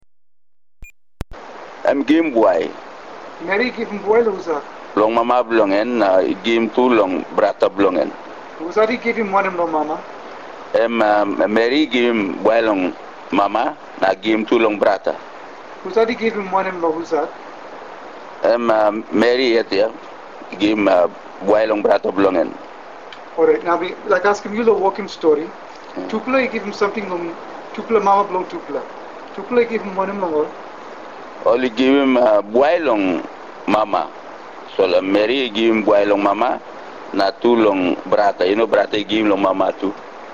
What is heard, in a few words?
Tok Pisin; TokPisinTexte